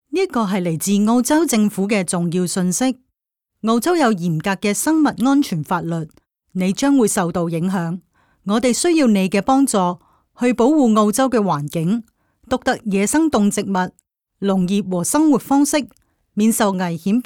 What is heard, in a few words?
incoming passenger announcement cantonese; Passenger announcement - Cantonese 2021; Chinese traditional; The Department of Agriculture, Fisheries and Forestry; aircraft